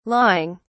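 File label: "lawing", British English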